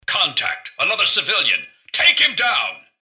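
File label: attack, robot; npc; mrgutsy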